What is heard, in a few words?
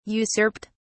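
"usurped", British English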